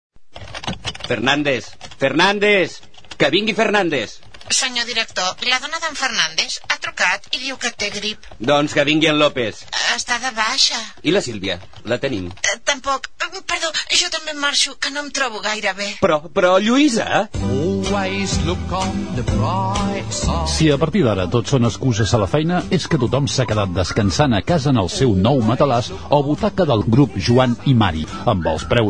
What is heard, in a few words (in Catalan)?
Comercial; MOBLES JOAN I MARI; Publicitat; Anoia Ràdio